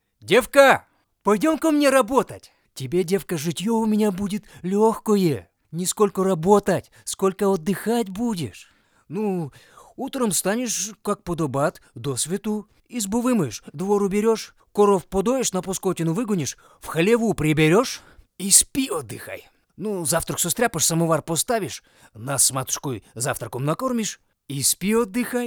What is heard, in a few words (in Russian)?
pop derevenskij s govorom